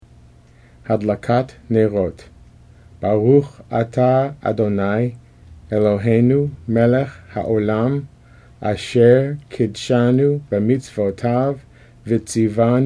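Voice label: Hadlakat Haneirot, male; Shabbat Evening Readings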